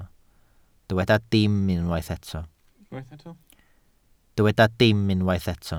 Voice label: "dim", Welsh